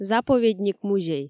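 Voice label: "заповедник музей", Komi, female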